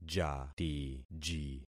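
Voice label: "jatiji", concatenated syllables; Affixing experiment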